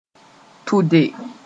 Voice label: "tʋde", moore; to be pregnant

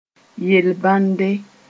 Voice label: "yel-bãnde", moore

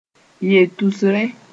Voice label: "ye tusrẽ", moore

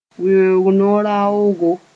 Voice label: "weoog no-raoogo", moore

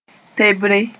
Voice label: "tebre", moore

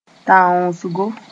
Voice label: "tãoosgo", moore; hunting

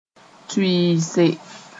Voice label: "tʋɩɩse", moore; lay the foundations

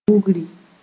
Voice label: "bugri", moore

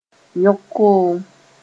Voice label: "yõg koom", moore

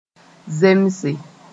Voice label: "zemse", moore

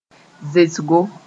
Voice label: "zesgo", moore

